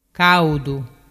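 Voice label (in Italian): "caudu", dialect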